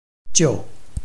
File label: "jiu4", Chinese